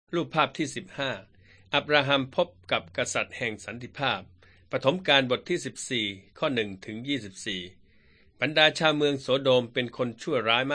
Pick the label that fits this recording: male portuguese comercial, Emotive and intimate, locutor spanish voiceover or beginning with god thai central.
beginning with god thai central